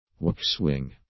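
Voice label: "waxwing", English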